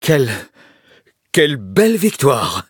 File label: victory, fr